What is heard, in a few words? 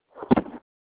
voicemail